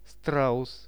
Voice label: "straus", Russian; страус; birds; RUSSIAN VISUAL DICTIONARY

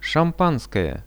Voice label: "shampanskoje", napitki; шампанское

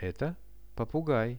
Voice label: eto popugai, Russian; birds; RUSSIAN VISUAL DICTIONARY

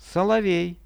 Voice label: solovej, Russian; соловей; birds; Какая это птица?

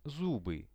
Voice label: "zuby", Russian

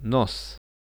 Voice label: "nos", Russian

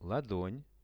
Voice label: "ladon", Russian; visual dictionary

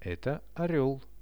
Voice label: eto orel, Russian; Это орёл; birds; Какая это птица?

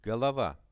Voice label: "golova", Russian; голова; RUSSIAN VISUAL DICTIONARY; portret

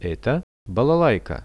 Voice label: "eto balalaika", Russian; Это балалайка; music; RUSSIAN VISUAL DICTIONARY

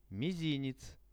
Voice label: "mizinec", Russian; visual dictionary

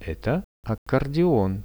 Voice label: "eto accordeon", Russian; Это аккордеон; music; RUSSIAN VISUAL DICTIONARY

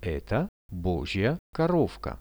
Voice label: eto bozhija korovka, Insects; Это божья коровка.

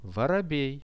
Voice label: vorobei, Russian; воробей; birds; RUSSIAN VISUAL DICTIONARY

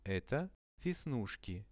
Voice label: "eto vesnushki", Russian; visual dictionary

